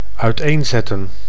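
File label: "uiteenzetten", Dutch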